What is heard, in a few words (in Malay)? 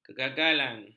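"kegagalan", Malay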